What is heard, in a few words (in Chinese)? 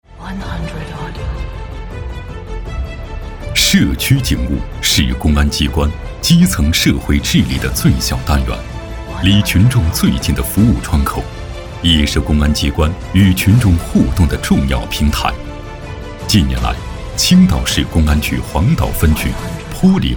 男 专题 军队 片 沉稳厚重 社区警务